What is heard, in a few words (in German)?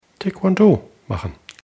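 "Taekwondo machen", German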